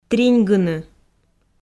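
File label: "триньгыны", Komi, female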